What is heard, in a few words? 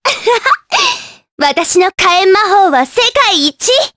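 voice, Japanese; Cleo; kingsraid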